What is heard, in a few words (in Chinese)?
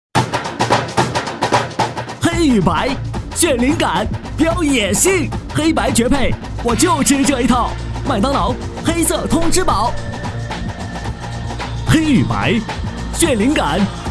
广告 配音; 麦当劳